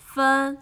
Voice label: "Fen", Chinese